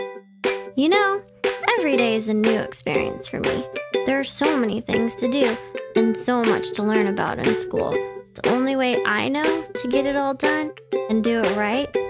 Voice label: VO commercial